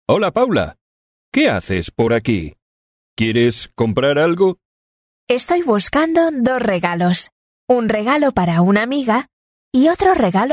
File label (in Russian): urok dialog